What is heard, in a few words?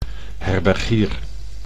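"herbergier", Dutch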